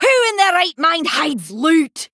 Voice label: Female Dwarf

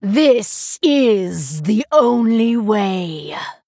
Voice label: Female Night Elf Bark; Lady Anacondra

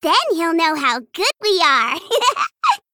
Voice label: Female Gnome

